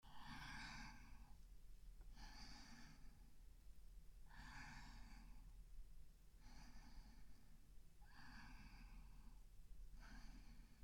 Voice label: あまあまおはようボイス, ASMR

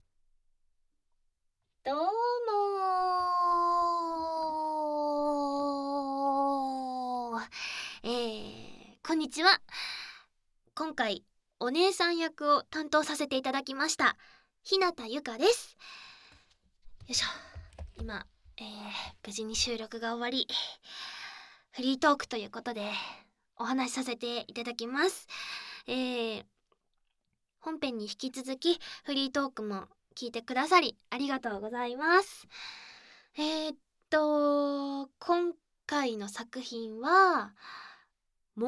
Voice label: フリートーク

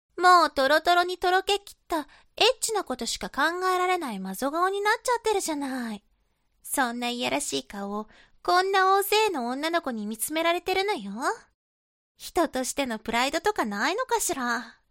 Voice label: 懲罰中音声, ASMR

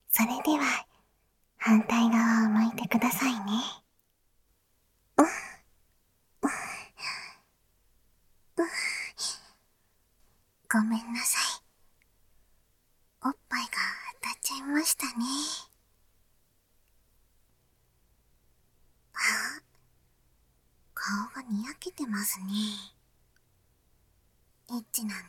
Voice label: 方向転換（バイノーラルマイク収録）; 効果音あり

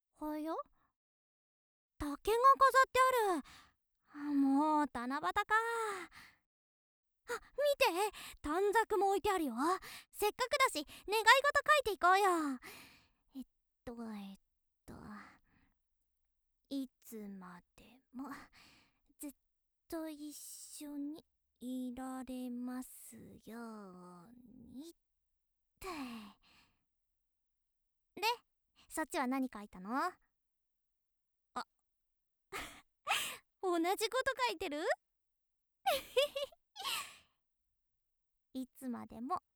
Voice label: 元気JK 七夕だね

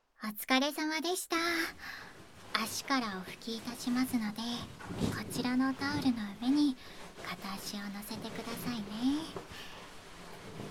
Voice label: 極上のアロママッサージ, ASMR; 絶景を見ながら…

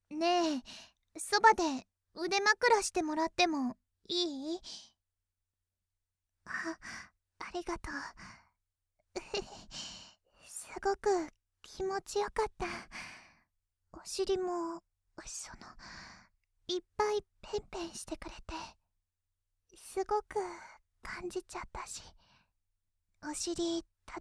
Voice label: ピロートークと寝言（左）

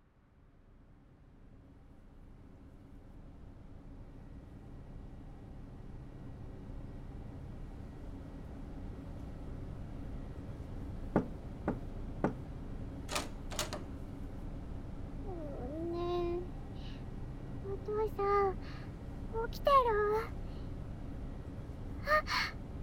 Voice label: 普通の添い寝

